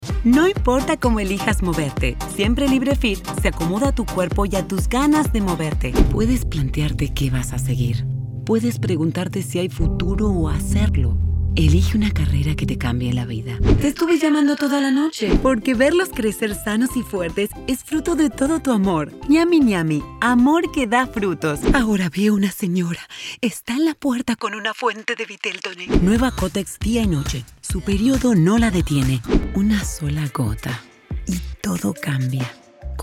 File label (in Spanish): Neutro con musica